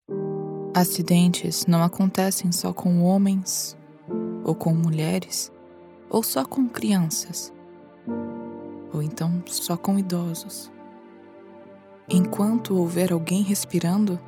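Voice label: commercial ad heartfelt sincere medical caring hospital female brazilian portuguese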